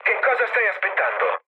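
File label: Italian attack position mood low, speech; War Thunder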